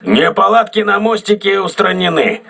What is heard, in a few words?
voice message bridge repaired, crew ships russian